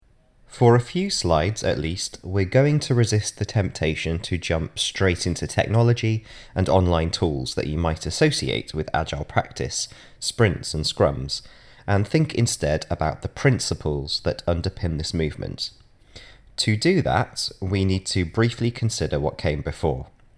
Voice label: Narration; Radius; AI